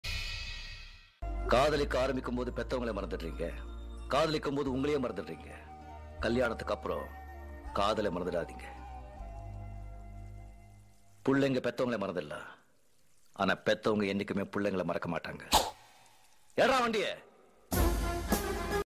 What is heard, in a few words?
mass dialogue bgm; rajinikanth; padayappa